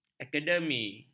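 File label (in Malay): "akademi", Malay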